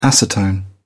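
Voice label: "acetone", British English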